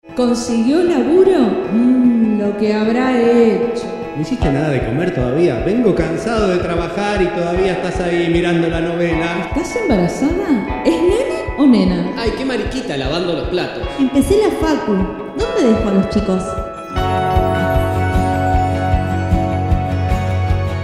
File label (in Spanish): SPOT MUSICA LIBRE